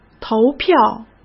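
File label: "投票", Mandarin Chinese; chinese-flashcards; hsk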